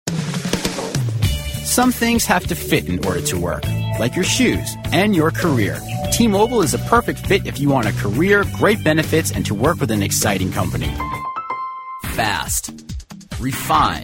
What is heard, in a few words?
young